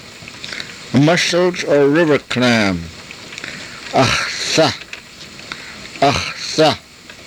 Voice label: "axthah", Karuk

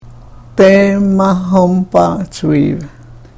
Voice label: karuk

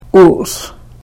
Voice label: "uus", karuk

